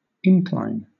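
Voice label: "incline", British English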